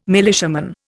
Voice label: "militiaman", Indian